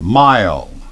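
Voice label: "mile", English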